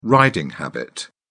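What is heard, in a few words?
"riding habit", British English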